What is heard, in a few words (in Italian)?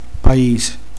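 "paese", Sicilian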